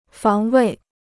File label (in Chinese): "防卫", Chinese